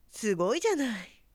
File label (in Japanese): 女教師